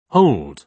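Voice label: "hold", British English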